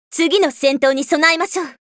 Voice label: Voice victory